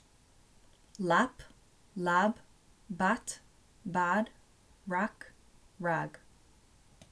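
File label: English a stop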